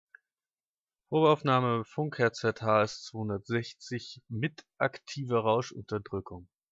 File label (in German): Sprachaufnahme +10db Rauschunterdrückung, Headset